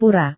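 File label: "pura", Indonesian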